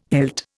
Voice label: "hilt", Indian